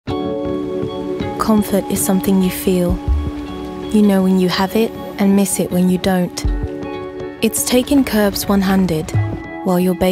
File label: UK, voice-overs; Bugaboo the smoothest ride